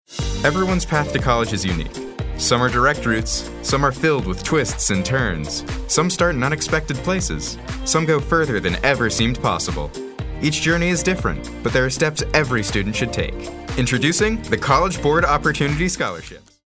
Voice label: friendly

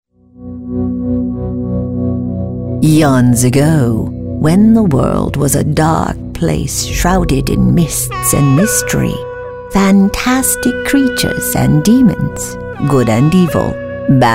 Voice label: storyteller

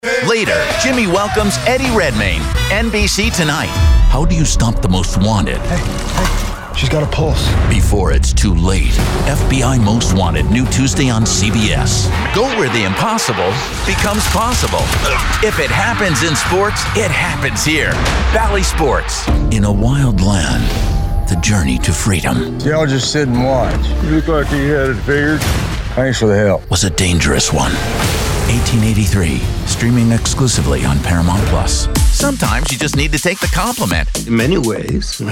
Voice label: Promos

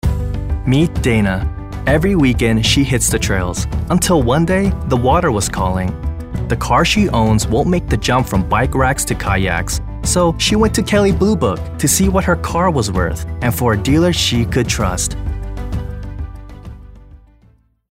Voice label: full of youthful energy; KBB

